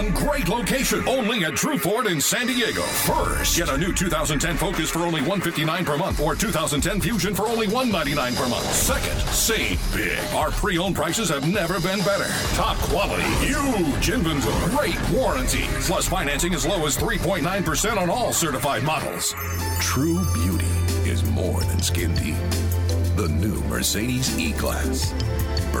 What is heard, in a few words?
auto dealer male